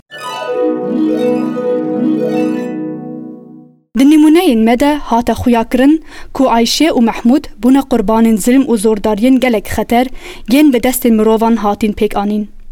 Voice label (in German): Kurmanci; NAWA